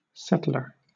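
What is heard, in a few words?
"settler", British English; pronunciations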